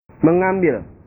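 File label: "mengambil", Indonesian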